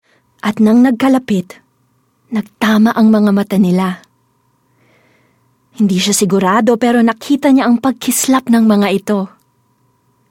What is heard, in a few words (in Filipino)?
Tagalog (Dramatic, Emotional)